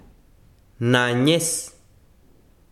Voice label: besiro carne, chiquitano